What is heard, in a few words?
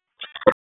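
Police North District Tactical 1, p25; Raleigh; wake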